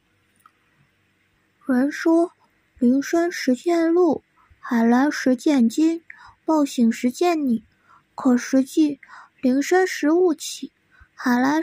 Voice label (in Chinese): 前半段小男孩, 纯净男童声、字正腔圆, 普通话